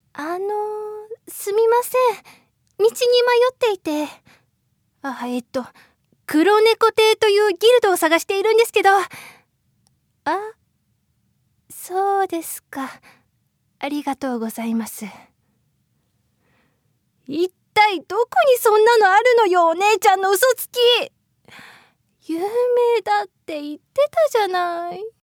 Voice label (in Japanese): 会社の同僚(冷たい)